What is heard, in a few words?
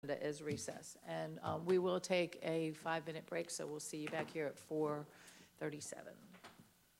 Announcement of Recess, planning zoning meeting